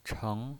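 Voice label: "cheng", Mandarin Chinese pinyin voice, second tone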